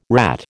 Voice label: "rat", American